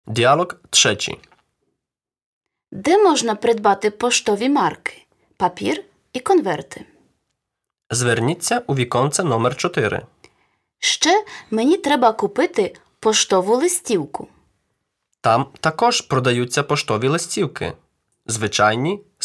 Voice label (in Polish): Lekcja Dialog